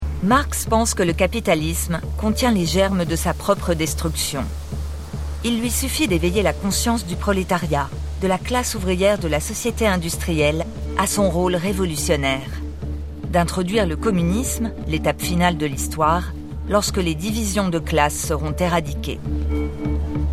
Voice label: Narration; Genie des temps modernes; Marx

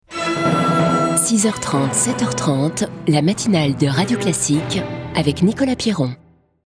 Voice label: INFO Flash

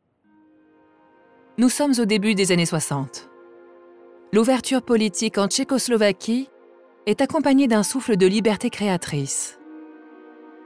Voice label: Commentaire; voix-off; Kundera

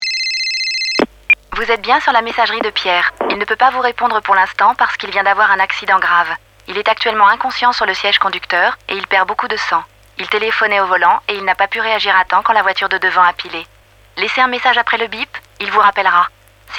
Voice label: Telephone; Conseil Gene Eure loire

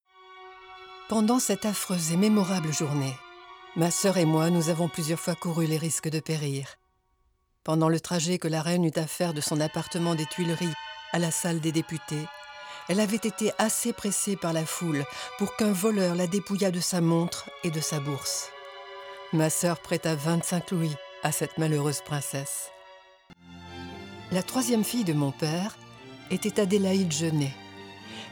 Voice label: Documentaire récit historique; Henriette Campan; Proche de la Reine Marie

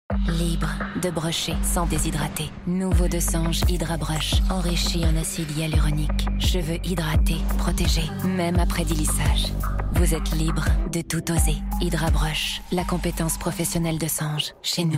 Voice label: PUB FR; Dessanges

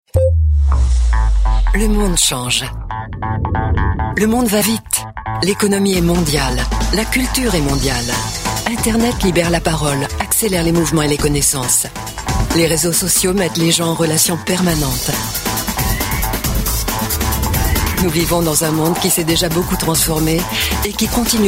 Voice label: film institutionnel; Renault

